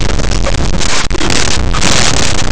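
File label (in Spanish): "escultura", Spanish; laboratori fonetica; fricatives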